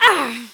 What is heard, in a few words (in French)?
enerve fem